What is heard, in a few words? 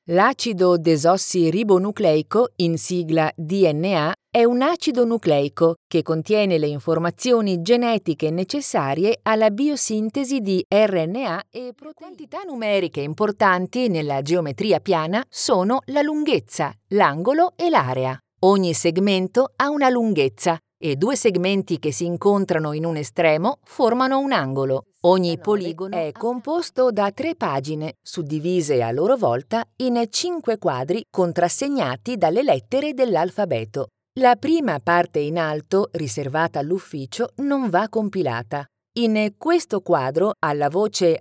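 Italian, female, e-learning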